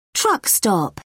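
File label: "truck stop", British English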